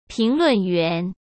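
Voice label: "评论员", Mandarin Chinese; chinese-flashcards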